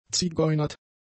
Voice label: "zigeunert", German; adjectives